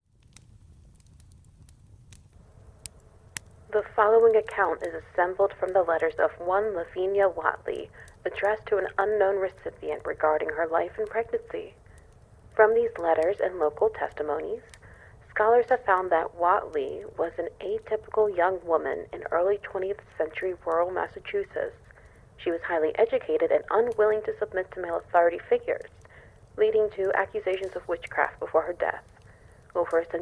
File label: Voice Affect, Ambience; The Unholy Love Letters of Lavinia Whatley